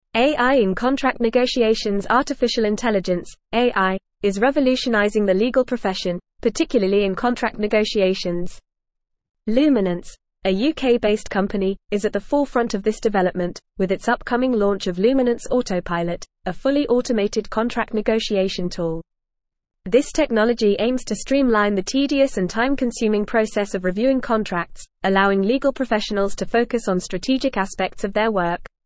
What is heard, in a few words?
English Newsroom Advanced FAST Reading; Luminance to Launch Automated Contract Negotiation Tool